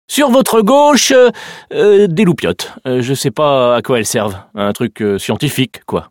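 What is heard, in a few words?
laser platform, French; Wheatley; tbeam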